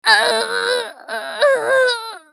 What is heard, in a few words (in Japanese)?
スカウト女 ダウン